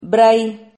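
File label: "brahi", Spanish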